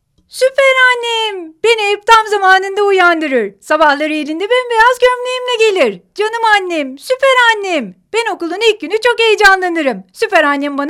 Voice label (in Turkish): reklam